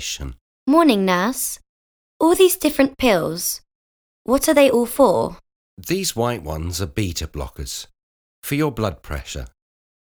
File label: Conversation; Bedside Manner Beginner